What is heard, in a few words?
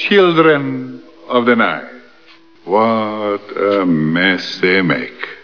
children of the night, Halloween Voices